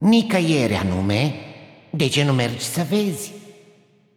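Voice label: voice over, Romanian; Kennen; game outro; bloom minigame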